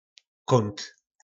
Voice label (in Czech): "Kónt", nářečí Po našimu; Kout